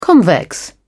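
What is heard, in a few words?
"convex", British English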